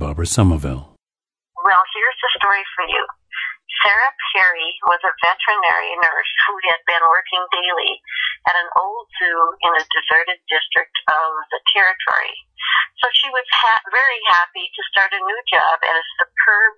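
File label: minnesota, International Dialects of English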